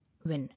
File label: "Win", English